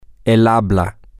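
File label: Spanish, male; this Is He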